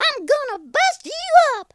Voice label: you'll regret that, cowgirl speech